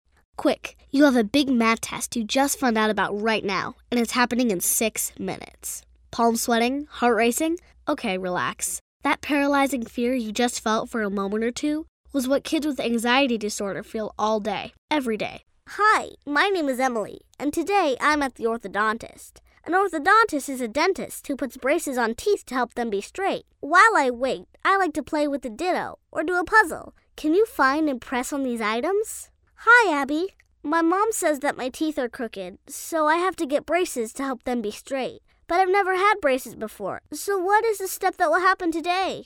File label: Educational